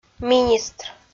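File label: "министр", Komi, female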